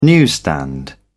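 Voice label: "newsstand", British English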